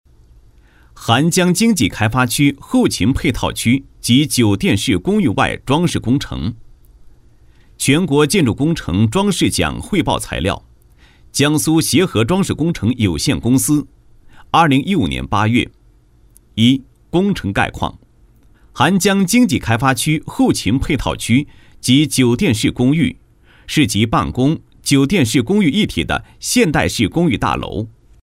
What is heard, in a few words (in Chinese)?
男-工程汇报; 某经济开发区后勤配套区及酒店式公寓外装饰工程